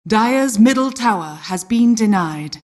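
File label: announcer tower deny dire mid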